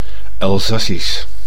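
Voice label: "Elzassisch", Dutch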